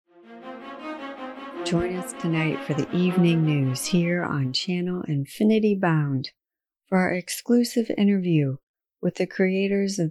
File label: Commercial